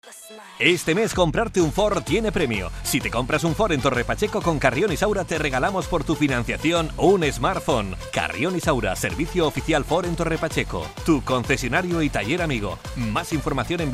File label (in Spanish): Anuncio; 40 Principales